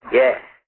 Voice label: "yeah" gangster; ooh ahh